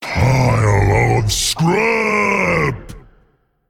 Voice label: Male Colossus Attack